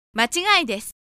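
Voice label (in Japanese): "machigai", Japanese; announce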